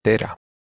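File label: "terh2", Proto-Indo-European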